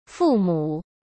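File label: "父母", Mandarin Chinese; chinese-flashcards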